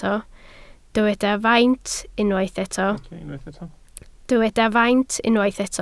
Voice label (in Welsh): "faint", Welsh